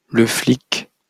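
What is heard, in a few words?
"le flic" French; pronunciation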